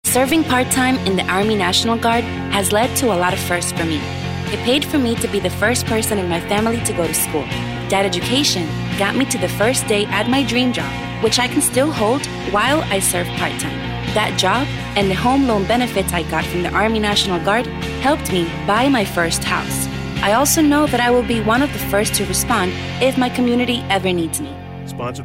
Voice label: Radio English